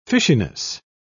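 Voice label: "fishiness", British English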